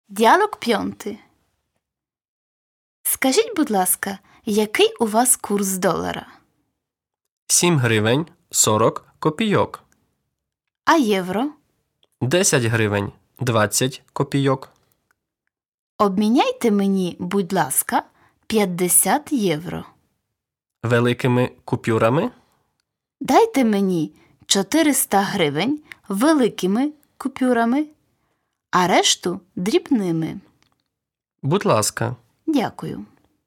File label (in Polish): Lekcja Dialog